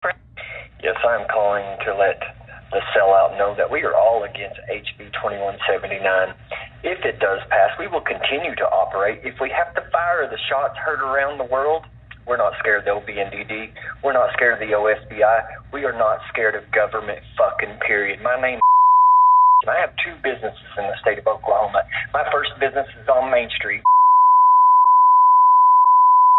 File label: voicemail; Sen. Garvin